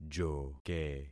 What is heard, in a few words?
"joke", concat syllables; Affixing experiment